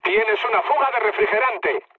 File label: damaged water, mood med, speech; War Thunder